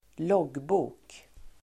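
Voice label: "loggbok", Swedish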